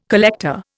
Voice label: "collector", Indian